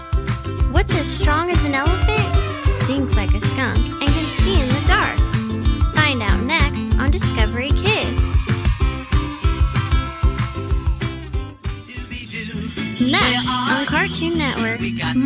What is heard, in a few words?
voice over